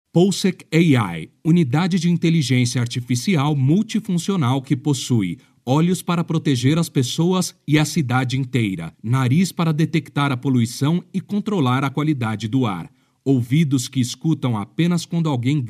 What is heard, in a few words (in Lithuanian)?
(VYR) Brazilu balsas, vyras